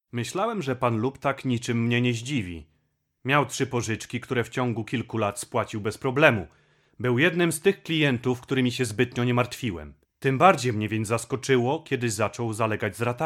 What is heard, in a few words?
Polish